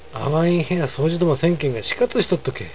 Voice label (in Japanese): あわいん部屋、掃除どませんけんが、しかつしとっとけ。, 有田弁; あいつの部屋って、掃除などしないから、汚くしているぞ。; いい加減、汚い、滅茶苦茶